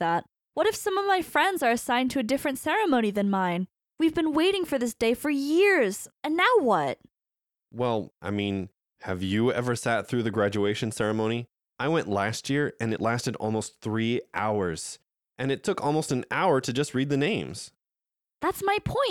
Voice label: student conversation; toefl; donation question type 2